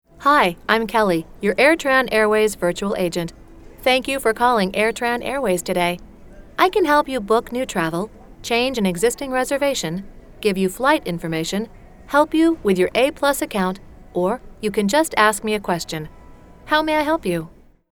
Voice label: Virtual Agent

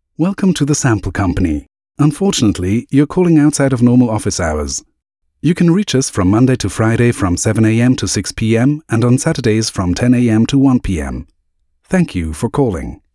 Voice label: Answering Machine

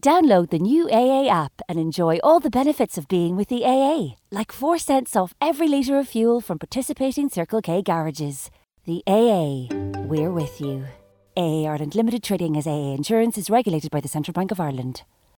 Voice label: tricks radio

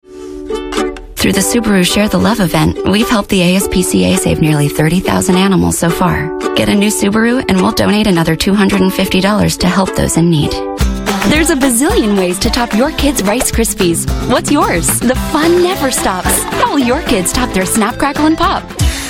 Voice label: Commercial

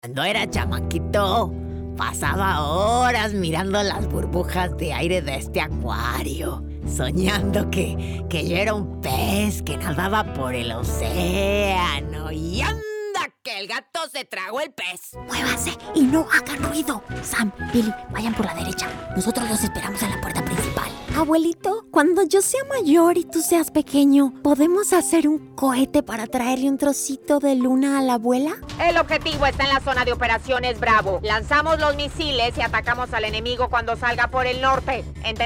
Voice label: animation Spanish

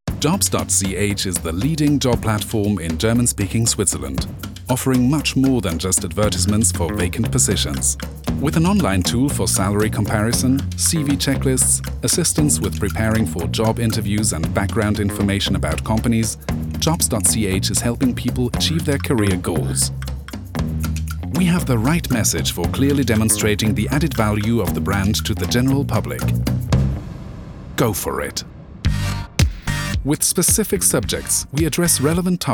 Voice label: Corporate Voiceover

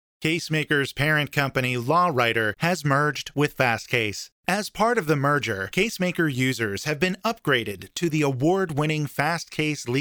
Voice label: IVR Phone Message

